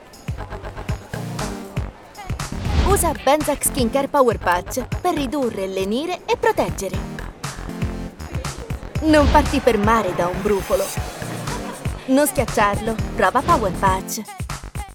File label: italian radio imaging